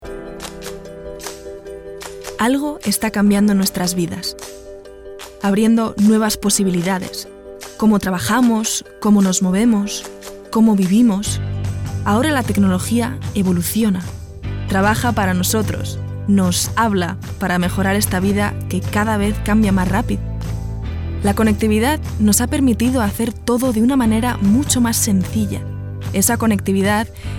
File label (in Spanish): ESP CORPORATIVO